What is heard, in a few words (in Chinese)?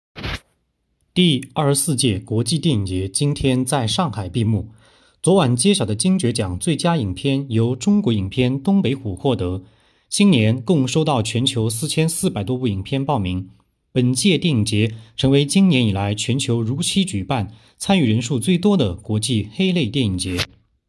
新闻